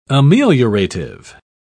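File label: "ameliorative", English, British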